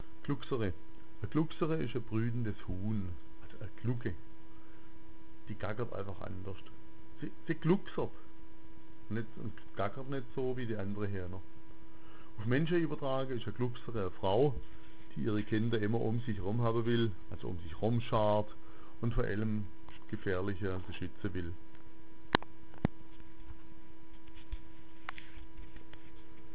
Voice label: glucksere, schwaebisch

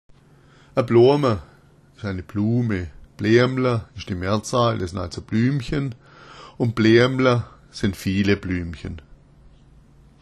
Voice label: bloama, schwaebisch

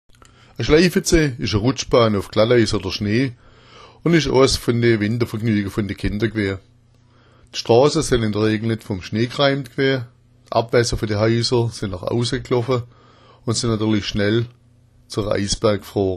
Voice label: schleifetsa, schwaebisch

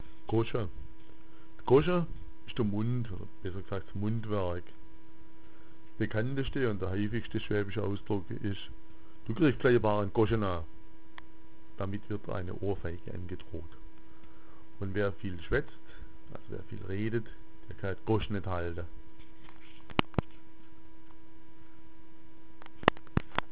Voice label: goscha, schwaebisch

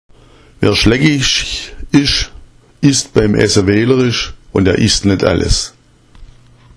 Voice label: "schleggich", schwaebisch; Wer schleggich ist, ist beim Essen wählerisch, isst nicht alles.

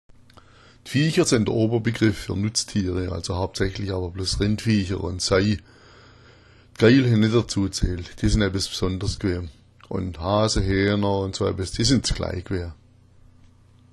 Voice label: viecher, schwaebisch

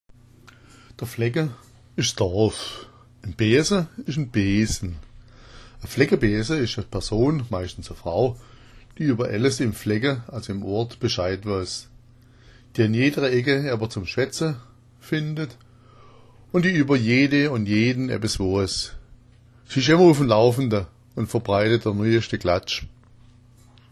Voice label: fleckabeasa, schwaebisch

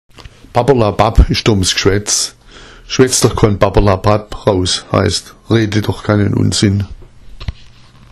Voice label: babberlabb, schwaebisch; Babberlababb ist dummes Geschwätz; schwätz‘ doch koan Babberlabb raus = rede doch keinen Unsinn

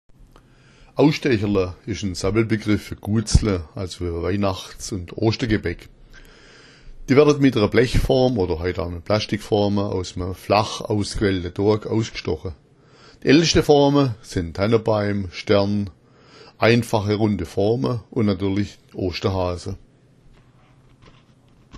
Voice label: "ausstecherla", schwaebisch; Ausstecherla ist ein Sammelbegriff für Guatsla, also Weihnachts- und Ostergebäck.

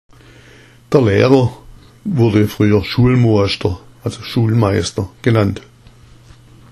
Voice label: "schualmoaschter", schwaebisch; Der Lehrer wurde früher Schualmoaschter – Schulmeister genannt

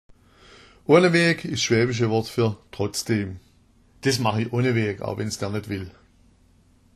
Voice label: oaneweg, schwaebisch

